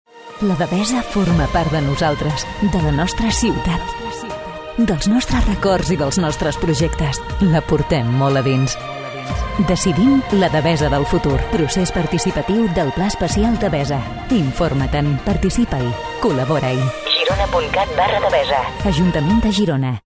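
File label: Catalan, female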